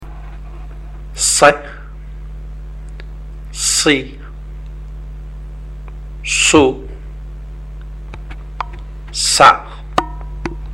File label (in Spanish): SADUN CON VOCALES, ARABE; ARABE A1; ALFABETO; LETRAS CON VOCALES